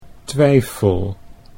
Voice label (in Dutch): "twijfel", Dutch